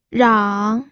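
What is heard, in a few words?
"rang", Mandarin Chinese, third tone; pinyin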